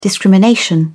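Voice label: "discrimination", British English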